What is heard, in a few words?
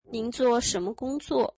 What do you do for a living, mandarin